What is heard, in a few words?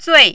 "zui4", mandarin